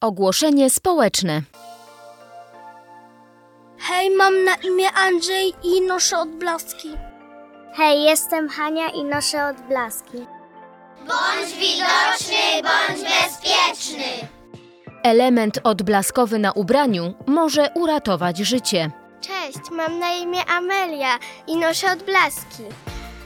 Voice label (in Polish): spot; odblaski 2024; odblaskowa klasa w radio andrychow